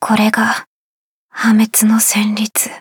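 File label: start, Japanese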